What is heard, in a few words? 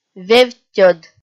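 "вевттьӧд", Komi, female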